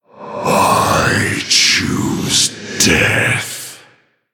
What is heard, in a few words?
Male Orc Concede